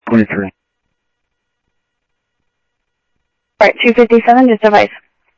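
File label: Sheriff West County, scanner audio trunk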